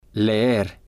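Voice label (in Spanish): "leer", Spanish, male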